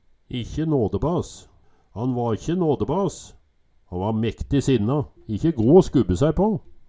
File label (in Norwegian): ikkje nadebas, Numedalsmål; Han va ikkje nådebas, han var mekti sinna, ikkje go å skubbe sæi på